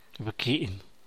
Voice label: "bquin", San Mateo Macuilxochitl Zapotec; eat; comer